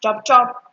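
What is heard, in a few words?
"chop chop", Nigerian Pidgin, female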